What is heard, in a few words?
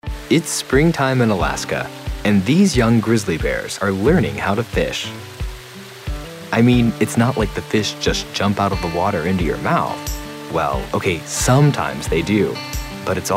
narration